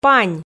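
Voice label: "пань", Komi, female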